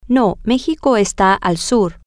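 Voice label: no mexico is to the south, spanish, female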